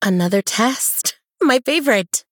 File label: Female Human Play